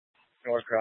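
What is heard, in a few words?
Incident Tac 3, Scanner audio recording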